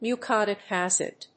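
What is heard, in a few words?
"muconic acid", English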